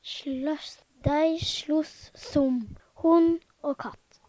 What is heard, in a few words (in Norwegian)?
sloss, Numedalsmål; Verb; Dei sLoss som honn o katt.